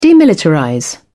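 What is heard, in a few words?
"demilitarize", British English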